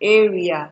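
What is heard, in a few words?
"area", Nigerian Pidgin, female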